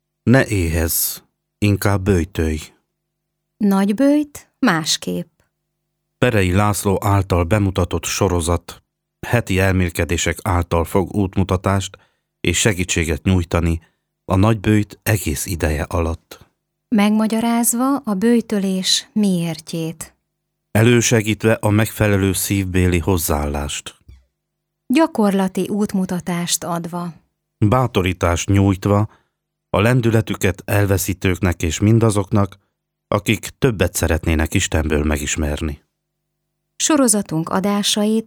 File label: spot